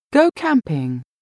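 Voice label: "go camping", English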